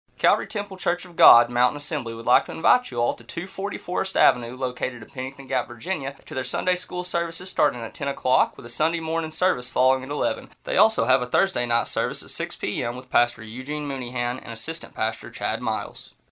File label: Church Announcements